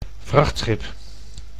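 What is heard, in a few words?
"vrachtschip", Dutch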